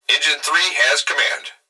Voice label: Emergency Human Male Voice Fire Dispatch Engine Three Has Command; emergency effects